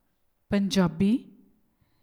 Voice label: "Punjabi"; sikh rehat maryada